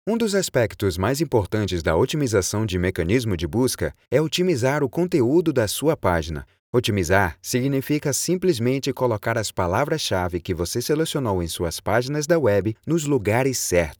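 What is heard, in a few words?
Brazilian elearning; portugisisk-brasil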